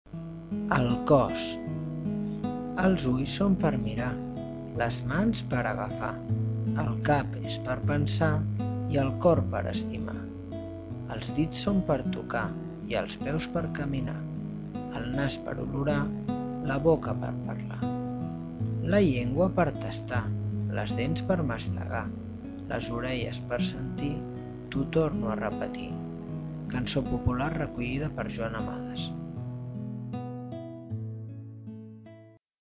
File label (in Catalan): poema sencer musicat